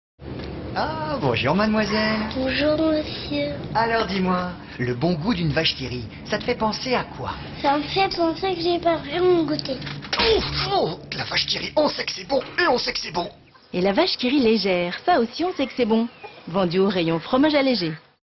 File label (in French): PUB TV; VACHE QUI RIT